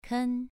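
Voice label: "ken", Mandarin Chinese, second tone, pronunciation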